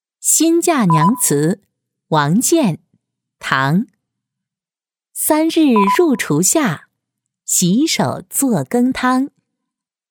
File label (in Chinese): 女 古诗; 新嫁娘词